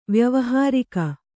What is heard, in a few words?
"ವ್ಯಾವಹಾರಿಕ", kannada